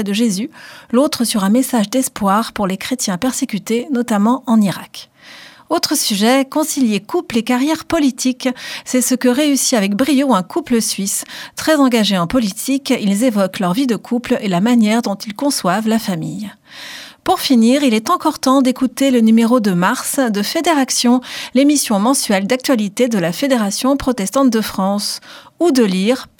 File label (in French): Spot radio; Regards protestants